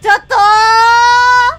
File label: "Take cover", Worms speechbanks; Tokoyami Towa